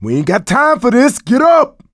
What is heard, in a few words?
Revive friend loud; survivor; coach